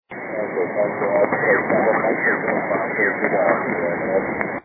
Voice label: 40m ssb